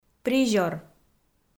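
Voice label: "призёр", Komi, female; kpv audio dict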